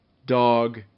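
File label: "dog", English; RhymeTest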